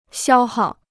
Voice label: "消耗", Chinese

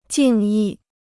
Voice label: "敬意", Chinese

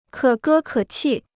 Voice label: "可歌可泣", Chinese

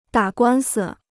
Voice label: "打官司", Chinese

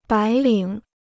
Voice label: "白领", Chinese

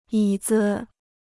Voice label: "椅子", Chinese

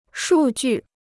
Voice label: "数据", Chinese

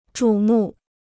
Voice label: "瞩目", Chinese

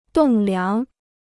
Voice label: "栋梁", Chinese

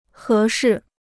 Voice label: "合适", Chinese

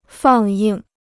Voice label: "放映", Chinese

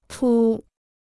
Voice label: "除", Chinese

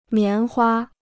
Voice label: "棉花", Chinese

